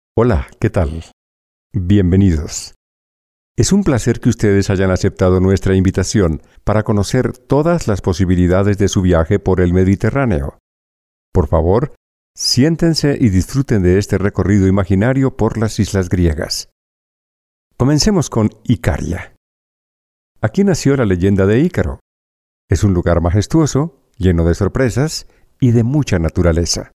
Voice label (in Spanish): spanish warm and friendly audio